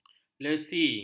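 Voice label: "lesi", Malay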